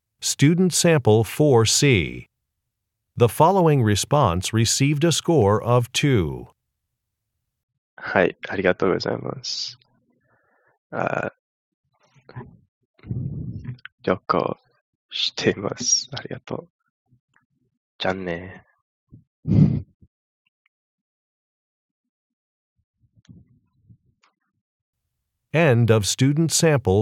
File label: Japanese conversation